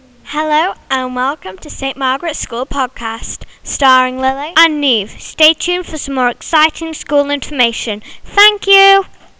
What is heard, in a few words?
podcast advert